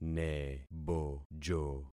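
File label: "nebojo", concatenated syllables; Affixing experiment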